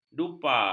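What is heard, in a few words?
"dupa", Malay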